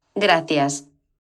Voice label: thank you spanish; christmas turkey dash